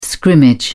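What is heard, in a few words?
"scrimmage", British English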